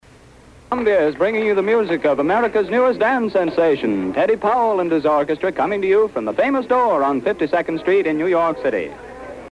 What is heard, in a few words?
Mid Show Announcement